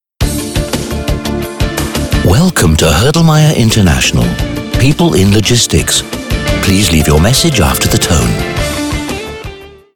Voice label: Mailbox; Hoedlmayr International

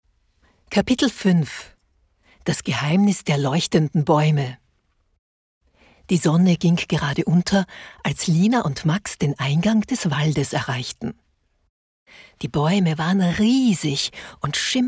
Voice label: Hoerbuch Kinder

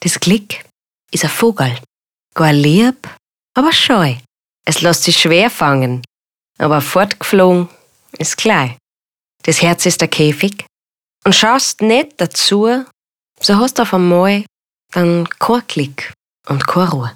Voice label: Dialekt

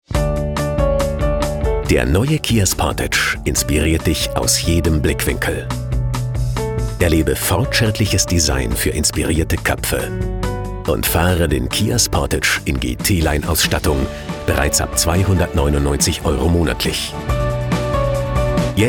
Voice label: Werbung dynamisch

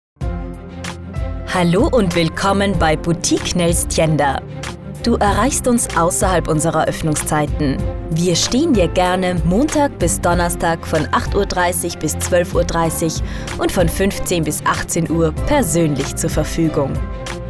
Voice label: Telefonansage Deutsch

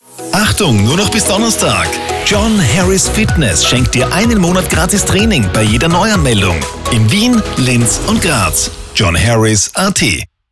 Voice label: Radiospot

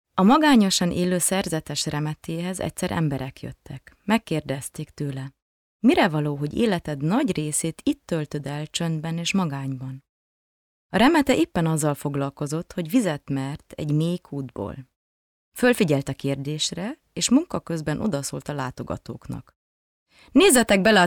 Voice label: Ungarisch

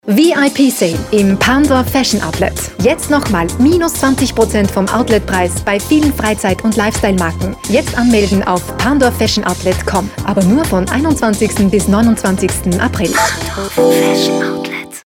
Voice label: Radiospot; PARNDORF

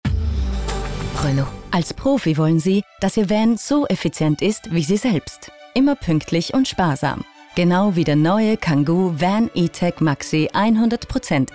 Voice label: HF Spot; Renault

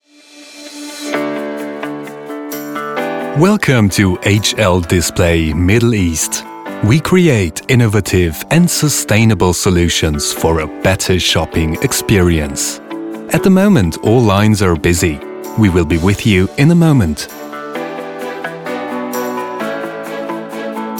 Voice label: Telefonansage Englisch; HL Display

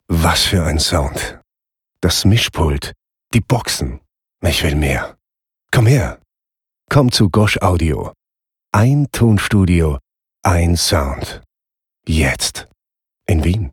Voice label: zärtlich

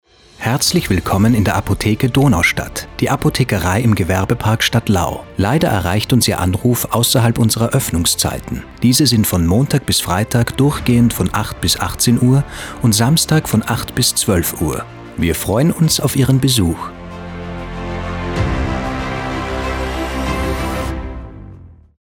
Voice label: Telefonansage Apotheke

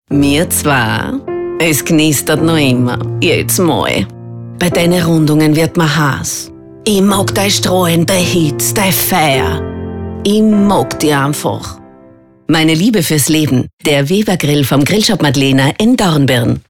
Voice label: Spot Wienerisch; WeberGrill

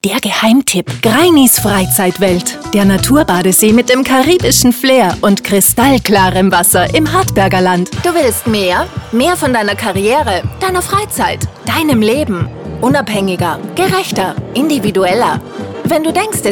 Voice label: Radiospots

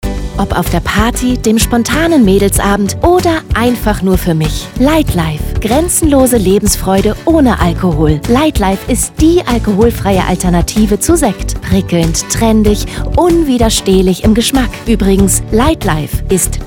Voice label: Werbung; Light Live

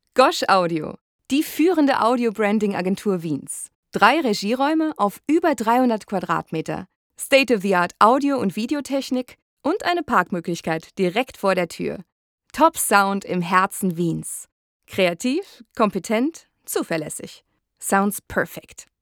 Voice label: Plakative Werbung

